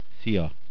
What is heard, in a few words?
So long, hungarian